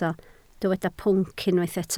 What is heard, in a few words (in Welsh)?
"pwnc", Welsh